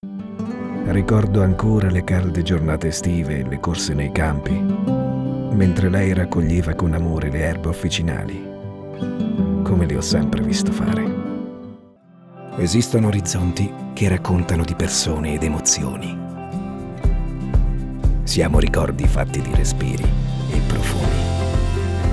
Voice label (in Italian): Calda Rassicurante Familiare